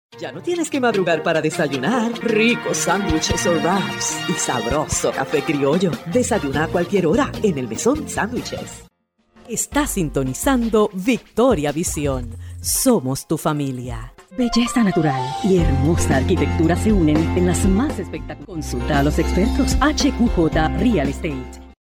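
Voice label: Spanish Latin America female sales; spanish americas voice over talent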